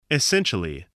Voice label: "essentially", voice, english